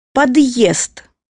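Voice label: "entrance", Russian; bukvar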